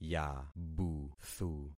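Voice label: "yabuthu", concatenated syllables; Affixing experiment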